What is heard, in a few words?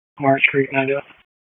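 Dispatch, p25; WSO DISP 1; wake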